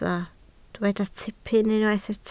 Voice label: "tipyn", Welsh

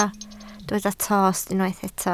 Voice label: "tost", Welsh

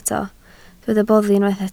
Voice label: "boddi", Welsh

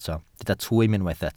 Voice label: "twym", Welsh

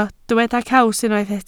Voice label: "caws", Welsh

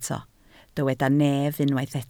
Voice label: "nef", Welsh

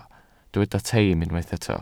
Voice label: "teim" Welsh

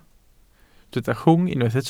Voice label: "rhwng", Welsh

